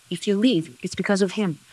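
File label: voice acting, es, female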